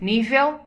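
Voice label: level, Basque; gcompris-data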